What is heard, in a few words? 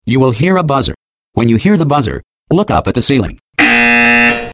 opening exercise, announcer; Portal 2